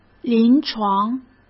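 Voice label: "临床", Mandarin Chinese; hsk; chinese-flashcards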